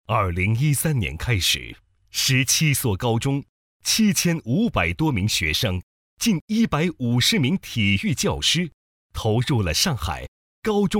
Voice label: 男 专题类 高中体育专项化, 国语男声配音 卫视; 上海高中体育专项化

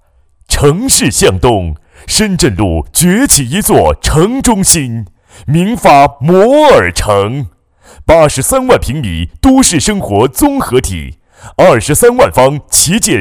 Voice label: 地产 快速; 明发地产; 房地产

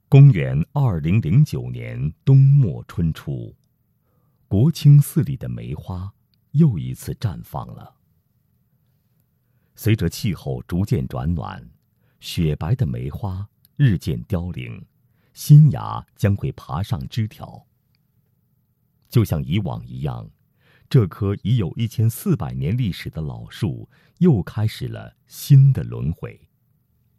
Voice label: 纪录片; 千年菩提路之东土释迦; 模仿孙悦斌

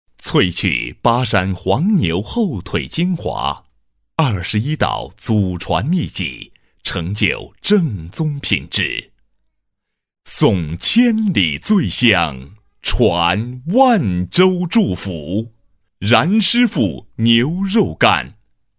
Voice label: 抒情广告, 男, 干音; 新闻广告专题颁奖; 万州冉师傅牛肉干

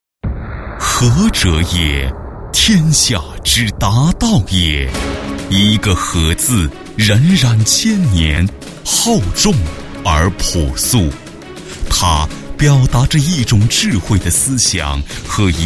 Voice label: 男 专题, 国语男声配音; 和奇七年回顾; 超级广告王

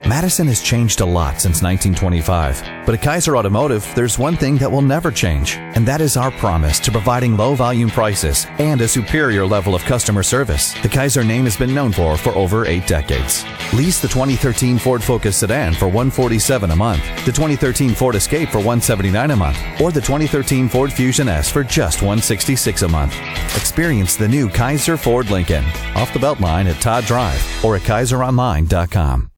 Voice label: 外籍男, 商业广告, Commercial; Kaiser-Automotive

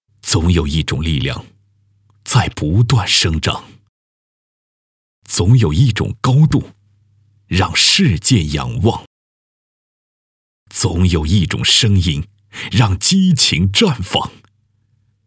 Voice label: 男 品质感; 总有一种力量在不断生长

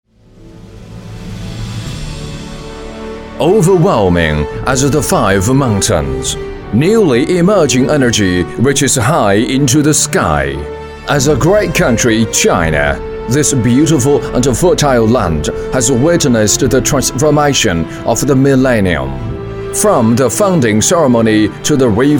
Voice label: 英男 健康阳光大气; 浩能科技

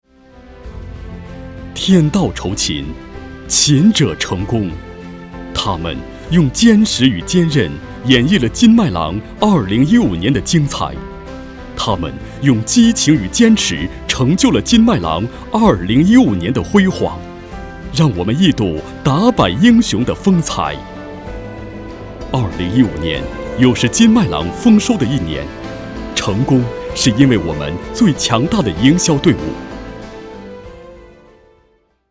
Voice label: 男 汇报片 颁奖, 国语男声配音; 大气专题; 今麦郎

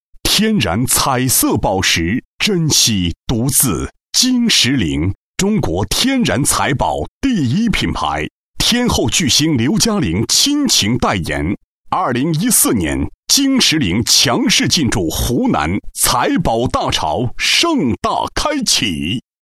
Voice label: 男 品牌, 国语男声配音 促销品牌广告; 晶石灵

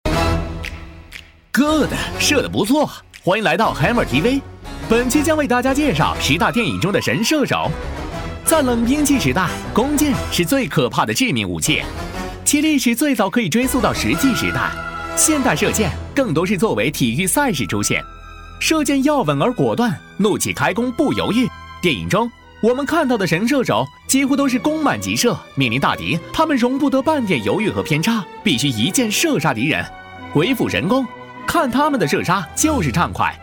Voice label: 男 诙谐视频类; HEMO-TV

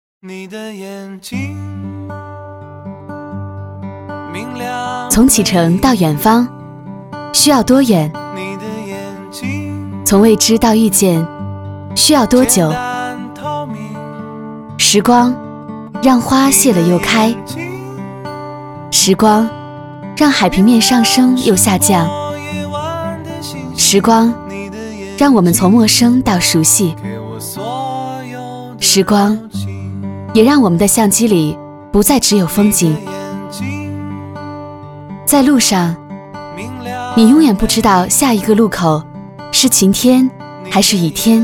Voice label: 女, 电台, 自然; 从启程到远方需要多远

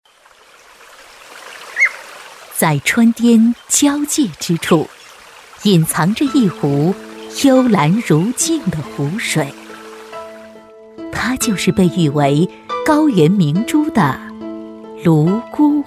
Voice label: 专题 风格 成熟 柔美, 国语女声配音; 泸沽湖

